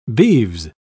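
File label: "beeves", en, uk British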